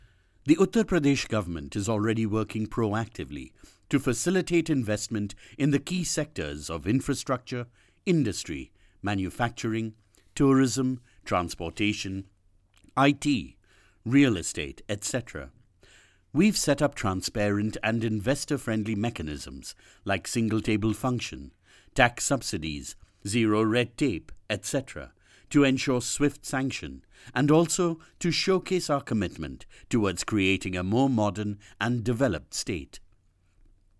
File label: corporate